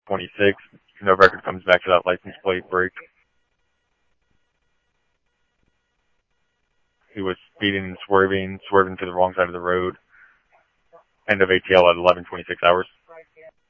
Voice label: Police, scanner audio